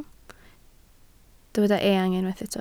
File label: "eang", Welsh